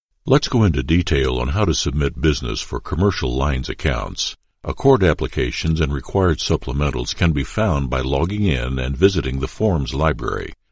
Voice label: Elearning